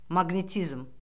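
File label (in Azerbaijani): "maqnetizm", Azerbaijani; Orfoqrafiya Lüğəti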